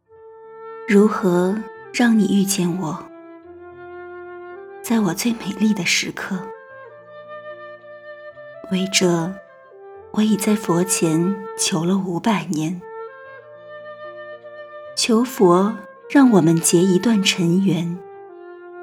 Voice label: 女, 青年（18-35）, 普通话, 甜美婉约 成熟稳重; 席慕容一棵开花的树

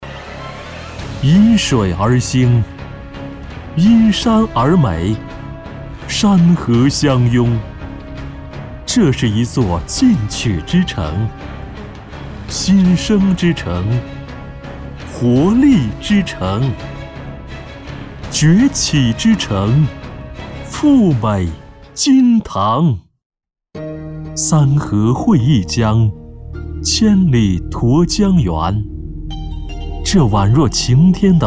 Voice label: 形象片激情澎湃; 醉美金堂

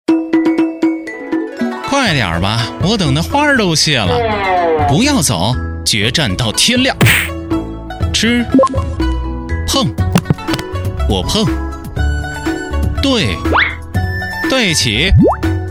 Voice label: 游戏配音麻将

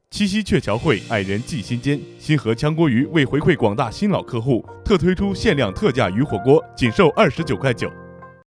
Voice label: 广告配音配乐

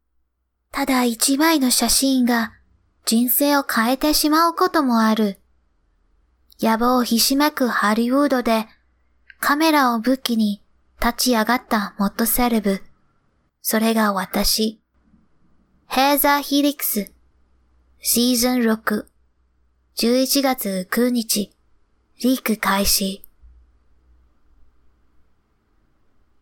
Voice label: 宣传广告

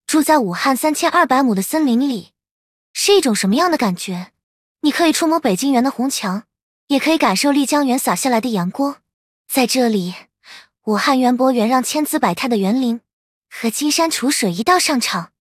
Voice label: 甜美女声

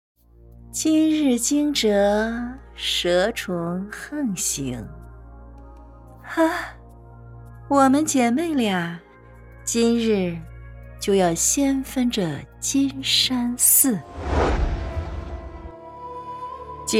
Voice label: 女角色音狐媚御姐侠女

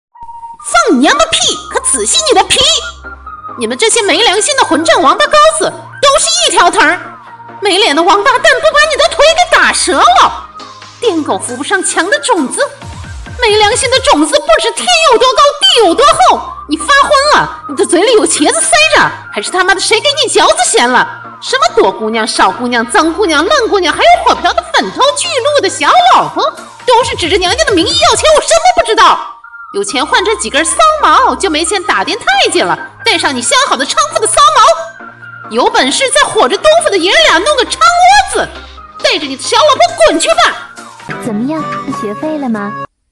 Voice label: 骂人配音; 凤姐

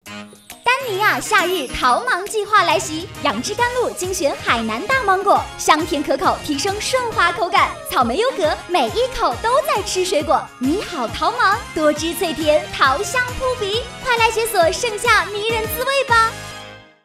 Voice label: 广告 蛋糕; 丹妮娅蛋糕

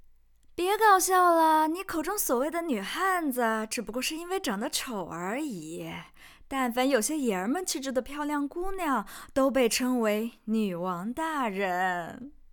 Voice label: 御姐音女王音

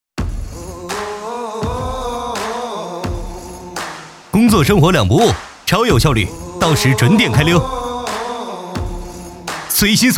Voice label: 年轻澎湃

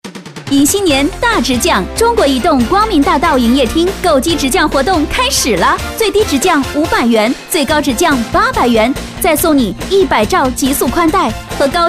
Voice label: 移动营业厅促销

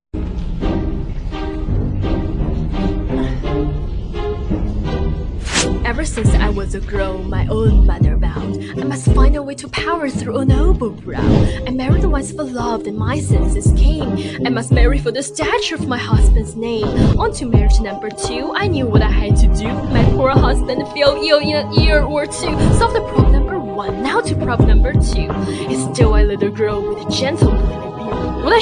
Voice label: 茶话会 英配; 后妈茶话会

